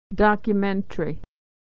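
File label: "documentary", American English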